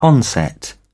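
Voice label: "onset", British English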